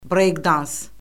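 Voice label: "breyk-dans", Azerbaijani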